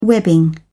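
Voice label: "webbing", British English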